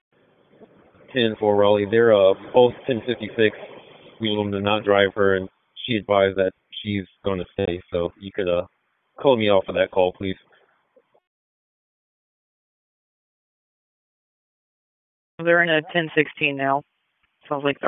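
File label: Police Northeast District Dispatch; Raleigh; wake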